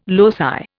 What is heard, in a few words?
"loci", Indian